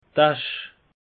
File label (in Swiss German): "asch", alsace; peche